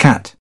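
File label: "cat", British English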